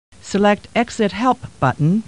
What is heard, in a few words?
Star Trek Computer Voice; StarTrekLCAR